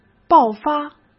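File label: "爆发", Mandarin Chinese; chinese-flashcards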